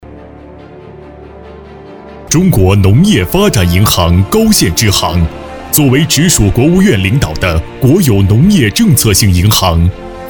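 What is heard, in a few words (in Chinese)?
国语 男声 宣传片（激情 浑厚） 大气浑厚磁性 沉稳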